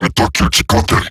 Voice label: Attack Robot Large, Barks, Battle; Warframe; Eximus Corpus Robotic Large